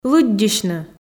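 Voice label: "лыддьысьны", Komi, female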